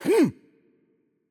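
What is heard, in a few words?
Japanese, attack light; VO; ja JP; bloom minigame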